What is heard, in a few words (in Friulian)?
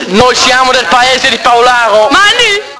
"mandi", Paularo